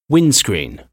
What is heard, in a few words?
windscreen